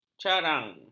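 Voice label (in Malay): "carang", Malay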